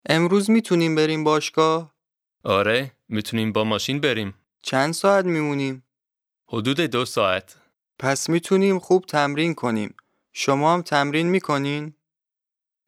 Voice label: Dialogue lesson Farsi